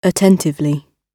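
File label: "a", British English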